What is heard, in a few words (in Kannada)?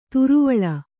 "ದ್ರಾವಿಳ", Kannada